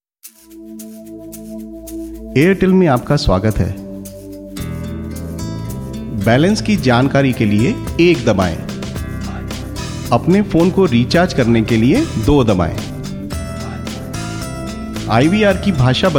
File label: PHONE IVR HINDI